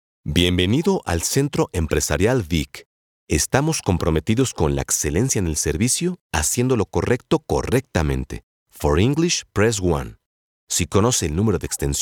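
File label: spanish latin american interactive voice response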